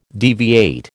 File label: "deviate", American